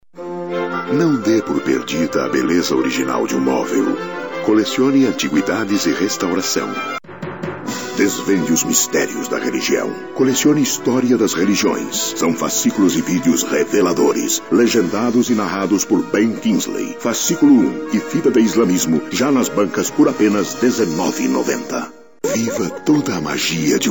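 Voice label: Portuguese male voice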